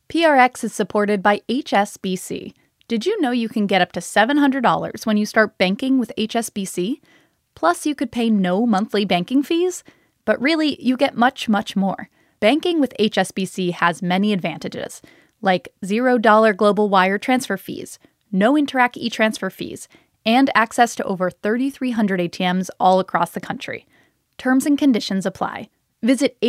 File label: Announcer; HSBC Canada